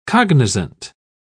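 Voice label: "cognizant", British English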